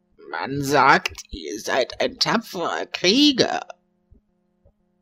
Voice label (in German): Krieger alt